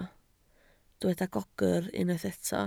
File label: "gogr", Welsh